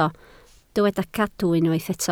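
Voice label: "cadw" Welsh, spoken word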